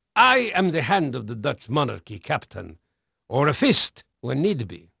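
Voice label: Dutch male, voice; Pirates Of The Caribbean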